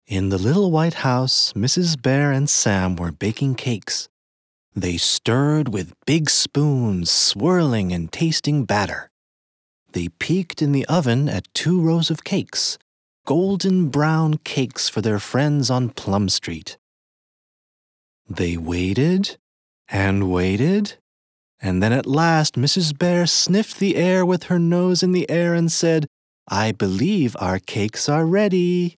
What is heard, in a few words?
US children story